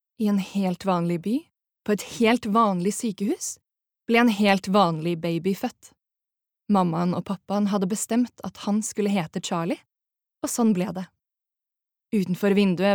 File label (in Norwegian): Norwegian barnebok, female